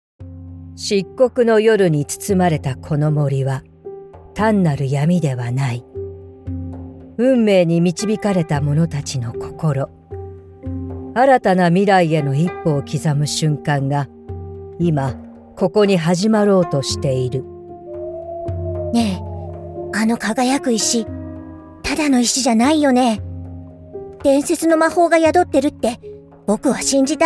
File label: Japanese, F, Gaming, voice-over